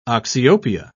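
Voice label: "oxyopia", British English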